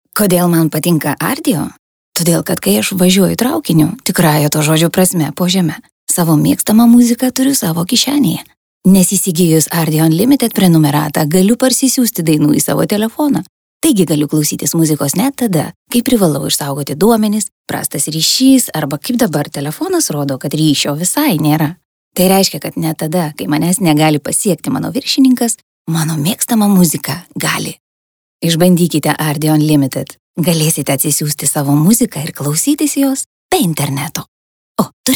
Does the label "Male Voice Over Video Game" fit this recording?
No